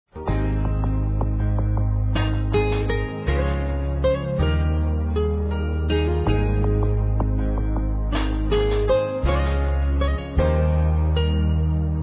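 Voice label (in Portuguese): Filha, Desculpas, Telemensagens, female; Telemensagens 2017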